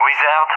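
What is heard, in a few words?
"Wizard", English speech; CallSign; Allied Flight; DCS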